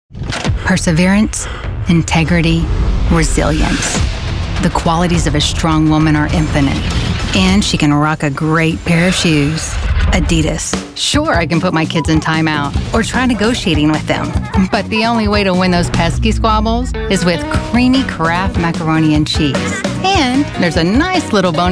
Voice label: Commercial Demo